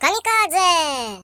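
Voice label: "Kamikaze", British; hedgewars; Default uk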